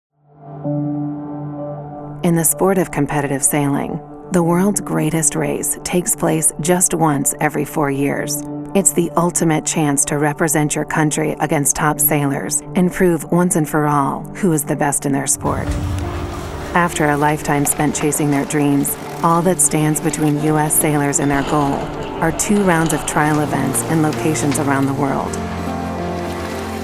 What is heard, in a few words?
Narration